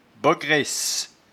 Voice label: "bogresse", patois jura

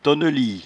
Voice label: "tonnelie", patois jura

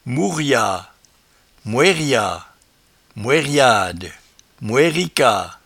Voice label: "mouriad", patois jura

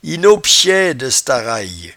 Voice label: "inopechedectaraye", patois jura

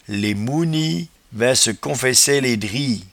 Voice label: "les mouenies", patois jura

